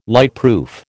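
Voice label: "lightproof", American English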